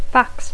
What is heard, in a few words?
"fax", English